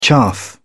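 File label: "chaff", British English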